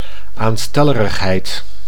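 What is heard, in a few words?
"aanstellerigheid", Dutch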